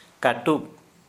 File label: Santali finger